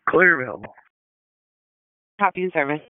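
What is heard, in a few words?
EMS Dispatch, p25; wake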